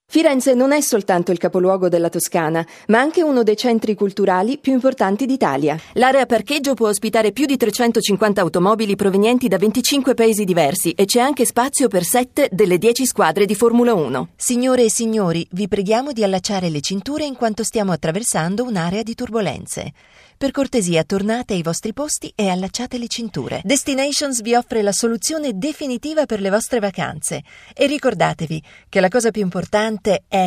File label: Corporate Italian